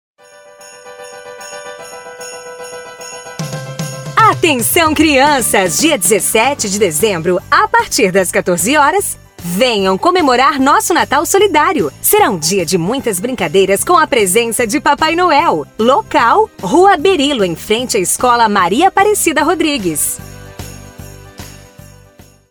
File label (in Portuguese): Spot Simples Natal